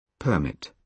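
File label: "permit", British English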